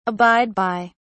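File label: "abide by", British English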